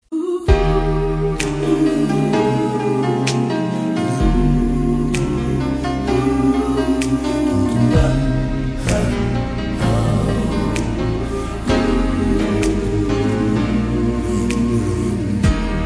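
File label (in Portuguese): PAQUERA MASCULINO; Telemensagens 2017; Conquistas Cantadas paqueras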